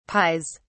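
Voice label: "pies", British English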